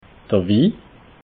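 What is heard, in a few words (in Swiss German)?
"wi", Alsatian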